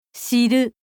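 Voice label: "shiru", Japanese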